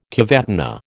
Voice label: "cavatina", American

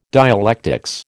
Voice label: "dialectics", American

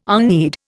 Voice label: "unread", Indian

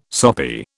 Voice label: "soppy", British

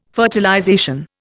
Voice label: "fertilization", Indian

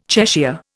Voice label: "Cheshire", Indian

